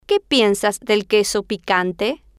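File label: que piensas de queso picanta, Spanish, female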